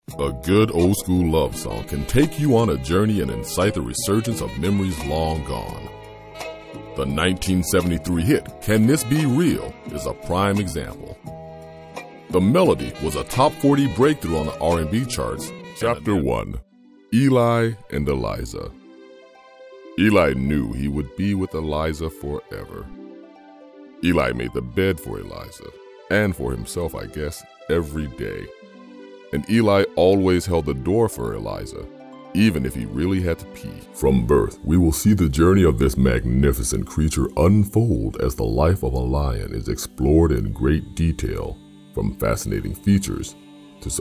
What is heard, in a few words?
Narration